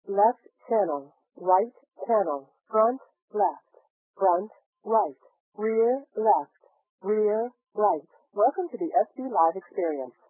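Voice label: pshift female, shift of frequencies above 1500 Hz with a ratio of -2 semitones, after compression and decompression by the VLC 8 codec at 12250 bps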